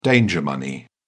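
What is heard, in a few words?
"danger money", British English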